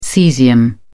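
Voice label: "caesium", British English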